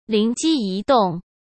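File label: "灵机一动", Mandarin Chinese; chinese flashcards; hsk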